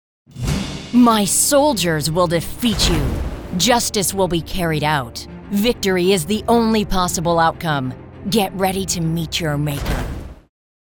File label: english american video games